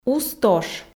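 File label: "ус-тош", Komi, female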